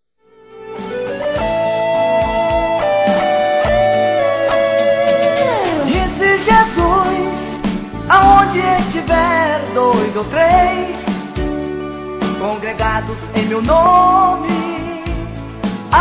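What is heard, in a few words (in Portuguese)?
POSSE DE PASTORA, feminino; Telemensagens; Telemensagens 2017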